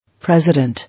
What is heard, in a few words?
"President", English